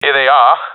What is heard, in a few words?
sees enemy, professional epic; vo; Counter Strike Model Pack; CS:GO